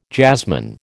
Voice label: "jazzman", American

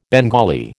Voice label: "Bengali", American

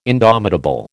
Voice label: "indomitable", American

